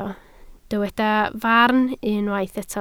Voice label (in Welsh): "farn" Welsh